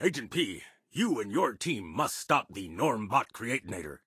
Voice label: English Voice